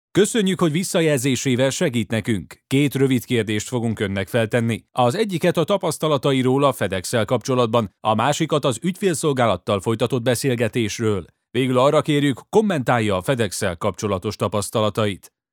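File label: Hungarian, male, IVR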